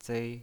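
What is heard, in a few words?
"zei" Mandarin Chinese pinyin voice, first tone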